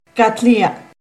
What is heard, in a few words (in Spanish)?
Cuál-Catlinya, Náhuatl; NAHUATLAHTOLLI